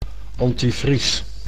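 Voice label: "antivries", Dutch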